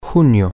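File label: Spanish, male; june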